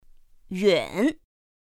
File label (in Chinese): "yǔn", pinyin; pinyin2; pinyinji